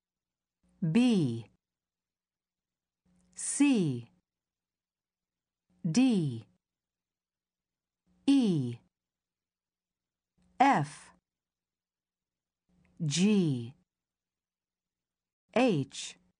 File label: english alphabet